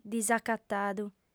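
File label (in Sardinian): "disacatadu", female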